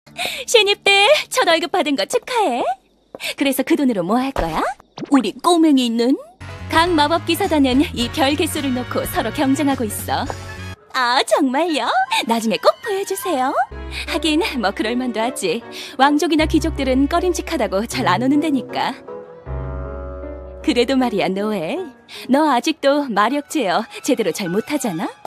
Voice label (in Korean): 20대중반 너스레떠는